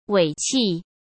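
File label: "尾气", Mandarin Chinese; hsk; chinese flashcards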